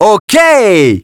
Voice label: "ok", French